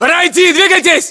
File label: order move generic, ru